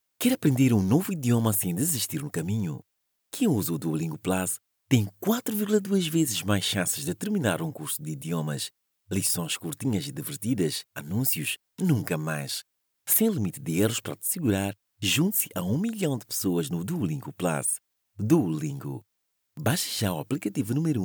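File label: Portuguese Mozambique, male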